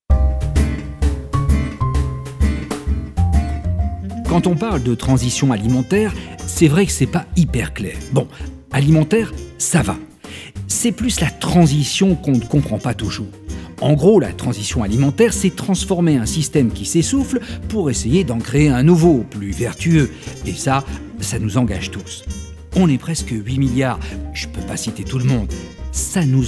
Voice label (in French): voix off pub; CARREFOUR